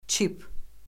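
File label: "çip", Azerbaijani; Orfoqrafiya Lüğəti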